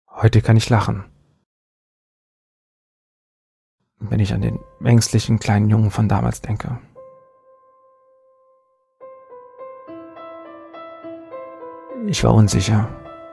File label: Narrative Angst